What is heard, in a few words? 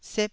"sep1", taiwanese syllable